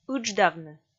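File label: "ыдждавны", Komi, female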